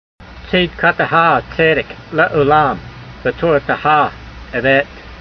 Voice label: voice, hebrew language; Psalm 119:142; tseedkateha tseydek le'o'lam, vetorateha emet